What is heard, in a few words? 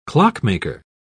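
"clockmaker", British English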